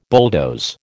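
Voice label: "bulldoze", American English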